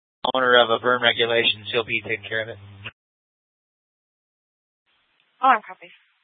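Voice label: Gilbert, Fire Department, scanner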